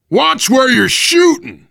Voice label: friendly fire, voice, biker